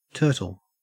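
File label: "turtle", Australian English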